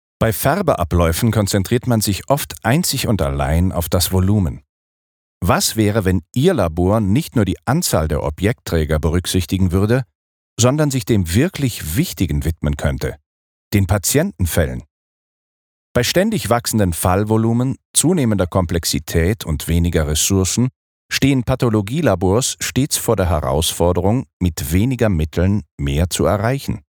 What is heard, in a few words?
explainer